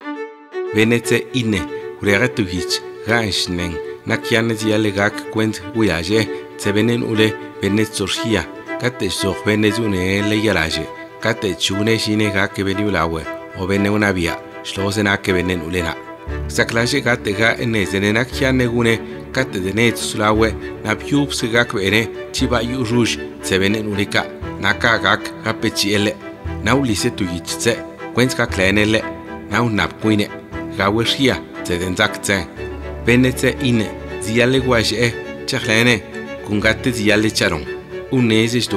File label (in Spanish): ZAPOTECO